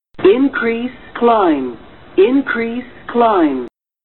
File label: Female Increase Climb